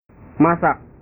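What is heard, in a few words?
"masak", Indonesian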